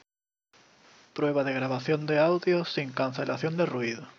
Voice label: ANC OFF; Asus ROG Clavis